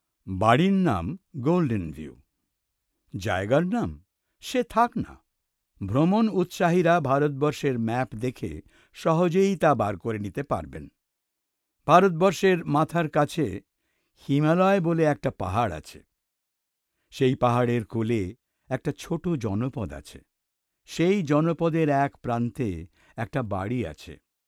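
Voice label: Bengali, Bangladesh, male, voice over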